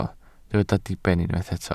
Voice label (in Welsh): "diben", Welsh